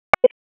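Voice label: Police District Dispatch; wake p25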